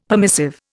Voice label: "permissive", Indian; Dictionary

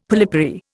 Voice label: "polypary", Indian; Dictionary

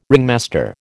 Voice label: "ringmaster", American

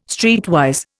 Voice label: "streetwise", Indian

